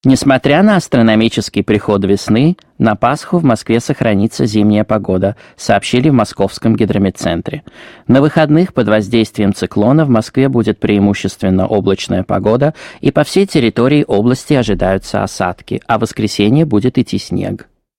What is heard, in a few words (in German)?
Russland M